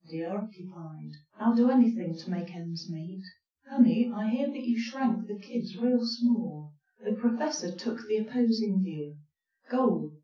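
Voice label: female speech; SiSEC10; underdetermined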